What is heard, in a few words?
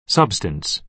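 "substance", American English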